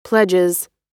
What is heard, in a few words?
"pledges", US